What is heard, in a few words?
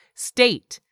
"STATE", english; 3000 words